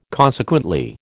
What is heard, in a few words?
"consequently", American